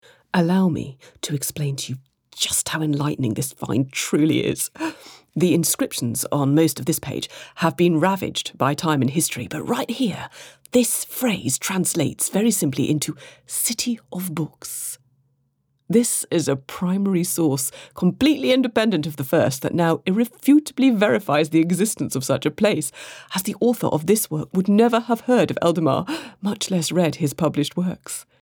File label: enthusiastic scholar